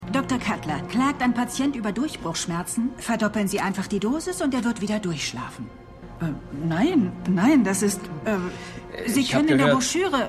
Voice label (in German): Neue Pharma Vertreterin; Dopesick